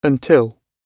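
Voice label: "until", British English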